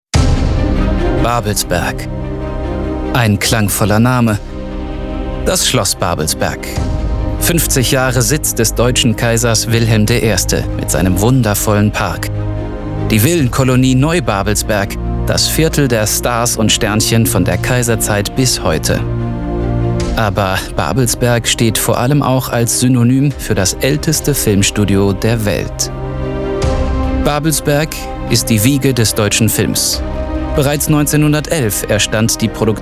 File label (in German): Doku; Babelsberg